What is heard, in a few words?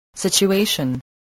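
"situation", spoken word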